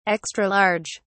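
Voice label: "extra large", British English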